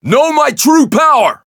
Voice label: variable chant; majuou